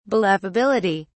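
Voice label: "believability", British English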